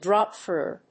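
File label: "drop through", English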